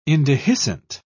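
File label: "indehiscent", British English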